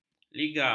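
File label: "liga", Malay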